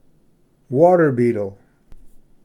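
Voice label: "water beetle", English; ᏙᏯ ᎤᏍᏗ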